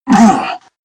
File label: heavy pain; zero